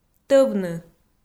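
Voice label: "тӧвны", Komi, female; audio dict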